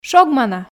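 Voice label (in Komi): "шогмана", Komi, female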